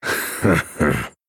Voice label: Male Night Elf MIRROR GREETINGS